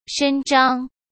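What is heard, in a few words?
"伸张" Mandarin Chinese; hsk; chinese-flashcards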